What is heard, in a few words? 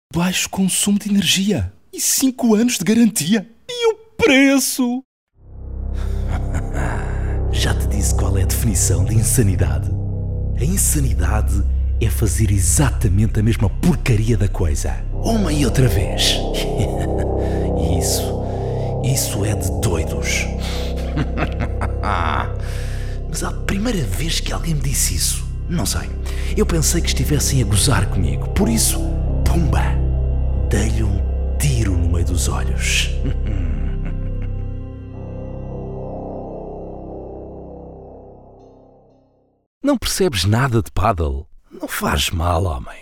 PT games character audioreel